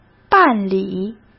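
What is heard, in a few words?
"办理", Mandarin Chinese; chinese-flashcards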